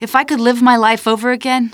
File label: question, female; Half-Life 2